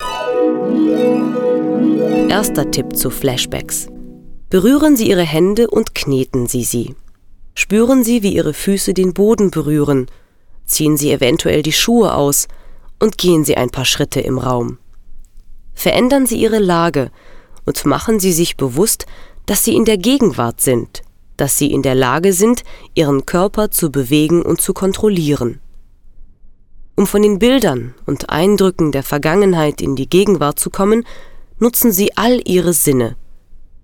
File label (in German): Deutsch; NAWA